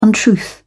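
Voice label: "untruth", English, British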